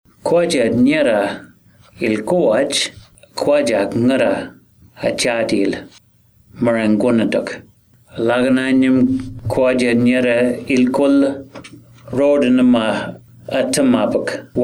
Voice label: Alyawarr floods message; tropical cyclone esther february 2020; NTFRES